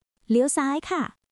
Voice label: Thai, basic thai phrases